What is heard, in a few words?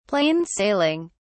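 "plain sailing", British English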